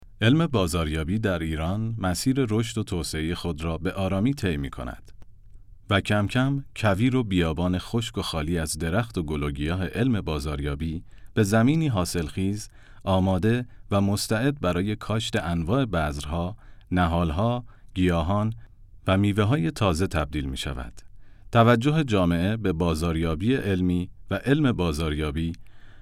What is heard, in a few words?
non fiction story, english male narrators